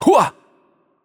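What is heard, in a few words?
Attack Heavy, Romanian; bloom minigame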